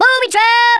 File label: bummer, Drill Sergeant; Worms Armageddon